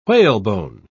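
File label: "whalebone", British English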